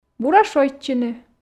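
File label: "бура шойччыны", Komi, female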